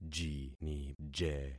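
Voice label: "jinije", concatenated syllables; Affixing experiment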